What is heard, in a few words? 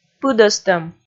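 "пыдӧстӧм", Komi, female; kpv audio dict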